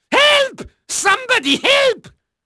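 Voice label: Dutch male, voice; Pirates Of The Caribbean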